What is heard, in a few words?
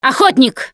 warn hunter, russian, Survivor Voice Producer